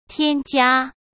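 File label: "添加", Mandarin Chinese; chinese flashcards; hsk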